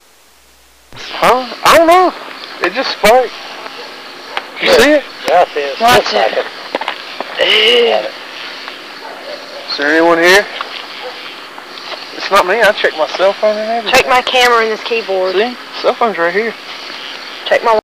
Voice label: Old Female; Here's whats happen Uh

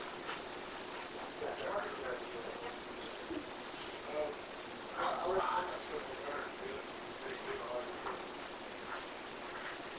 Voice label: Unexplained inaudible Whisper; Case 029 Christian Home

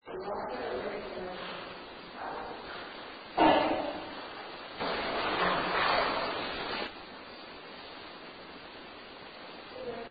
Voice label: museum noise girl not madd

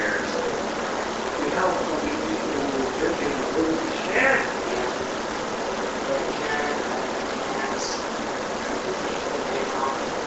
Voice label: Unexplained Male Voice (Shit) Amplified

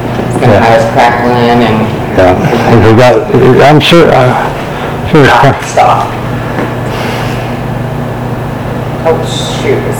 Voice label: Unexplained Voice (Don't Stop) AMPLIFIED

